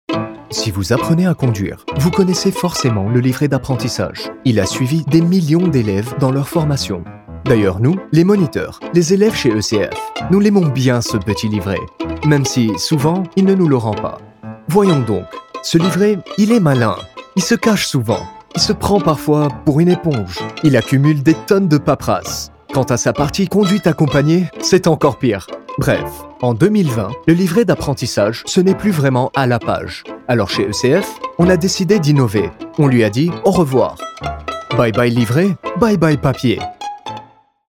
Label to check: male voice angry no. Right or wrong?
Wrong